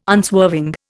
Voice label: "unswerving", Indian

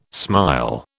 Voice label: "smile", British

